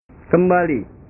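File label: "kembali", Indonesian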